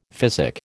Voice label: "physic", American